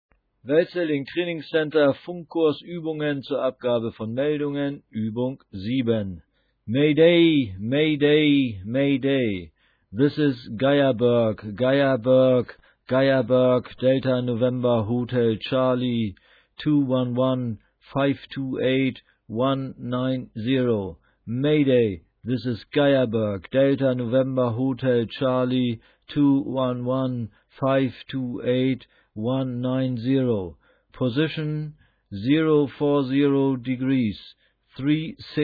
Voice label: Distress